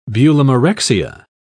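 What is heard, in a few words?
"bulimarexia", British English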